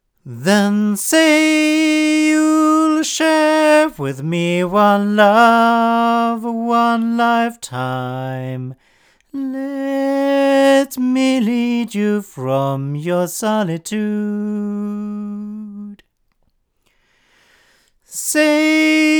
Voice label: male, microphones recordings